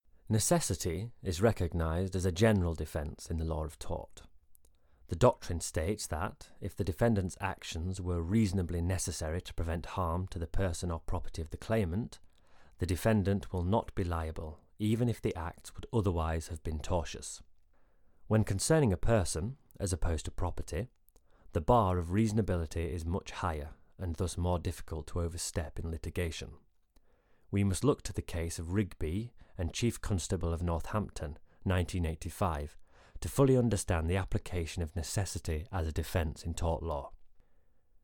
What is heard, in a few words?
Corporate; 20s-30s. Male. Studio. Yorkshire.